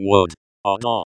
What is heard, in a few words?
"ạ²da", Cherokee; Wood; ᎠᏓ